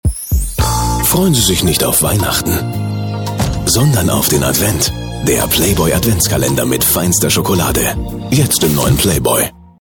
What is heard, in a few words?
tv spot; playboy